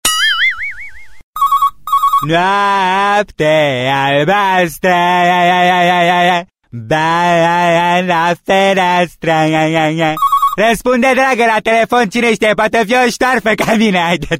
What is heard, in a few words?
Is Calling Rap